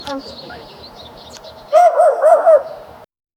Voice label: muffled farmer chatter; dialogue

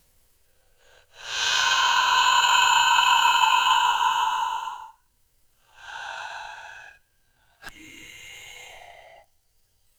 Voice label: creepy male whisper very, horror game

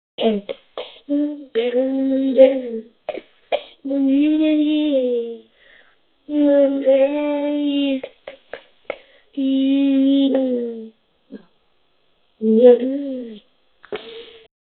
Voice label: a girl say good morning, greeting

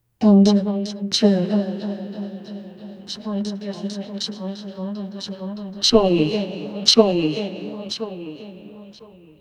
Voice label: an energetic tag of a, voice over

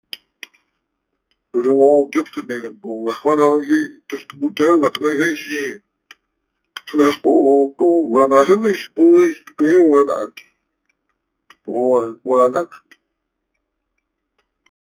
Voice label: create a commentary sound, commentary

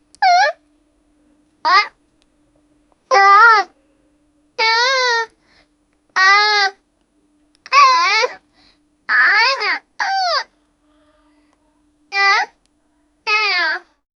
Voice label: anime cute girl says nya

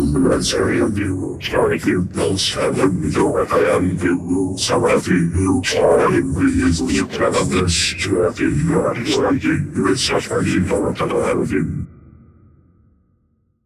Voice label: aesthetic voice saying; mythical

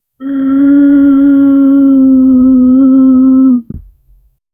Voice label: voiceover confused dazed

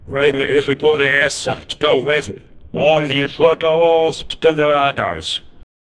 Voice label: a british male soccer com; commentary

